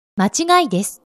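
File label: "machigai", Japanese; announce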